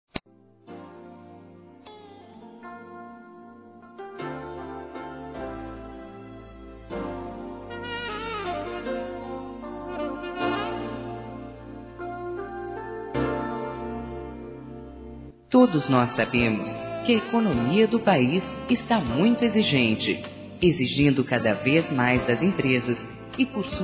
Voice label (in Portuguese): AGRADEC. A CLIENTE, fem, Telemensagens Empresarial; Telemensagens 2017